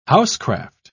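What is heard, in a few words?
"housecraft", British English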